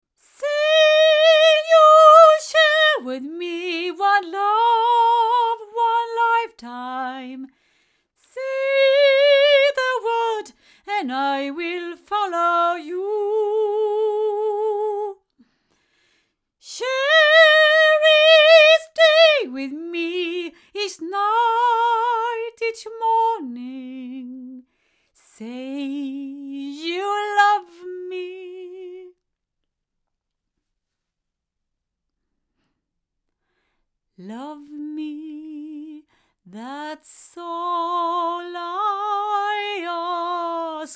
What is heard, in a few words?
MXL V67 female